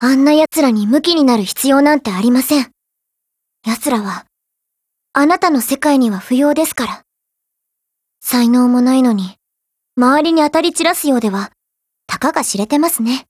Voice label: DIALOGUE JP